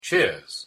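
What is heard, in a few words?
"cheers", English pronunciation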